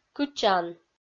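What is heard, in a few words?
"кычан", Komi-Zyrian, female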